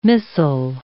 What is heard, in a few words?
"missile", English